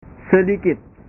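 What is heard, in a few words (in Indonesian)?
"sedikit", Indonesian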